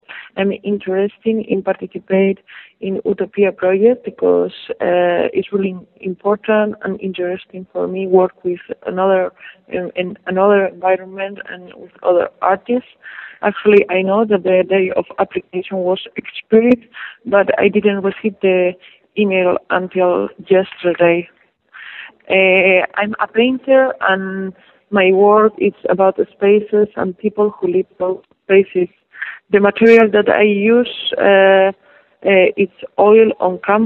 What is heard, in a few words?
Voicemail